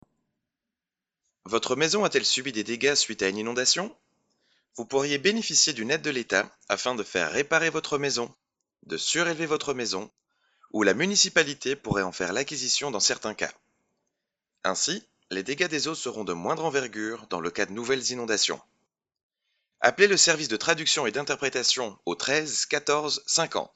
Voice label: french